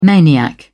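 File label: "maniac", British English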